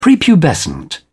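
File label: "prepubescent", British English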